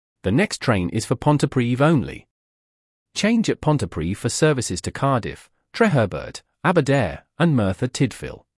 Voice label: announcement pontypridd english; operator